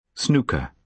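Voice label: "snooker", British English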